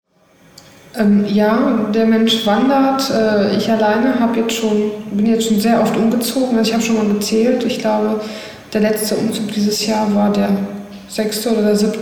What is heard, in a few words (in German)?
polnisch